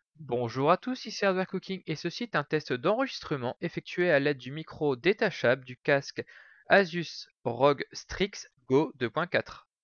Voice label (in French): test micro detachable, Asus ROG Strix Go 2.4